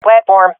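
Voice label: loc platform, swat female; vo; agents; Source Model Packs; Counter Strike Model Pack; CS2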